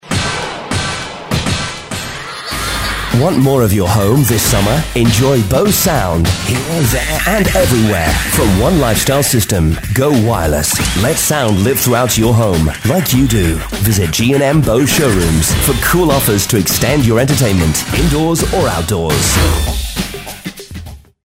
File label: radio; bose summer 06; radio 2